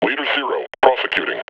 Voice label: combine announce; combine soldier